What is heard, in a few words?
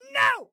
No, Excited Guy